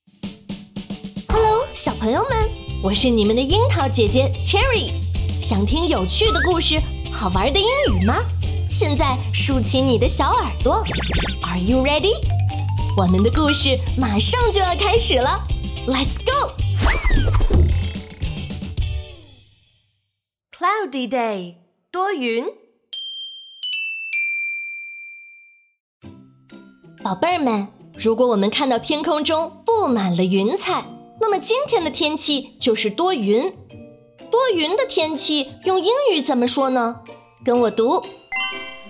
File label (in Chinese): 儿童英语